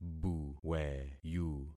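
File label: "buweyu", concatenated syllables; Affixing experiment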